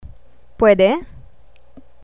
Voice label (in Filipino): "pwede" question, Tagalog; survival travel words